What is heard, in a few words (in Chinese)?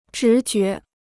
"直觉", Chinese